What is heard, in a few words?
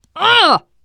reaction negative special, teen girl; player; survivor; voice